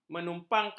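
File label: "menumpangkan", Malay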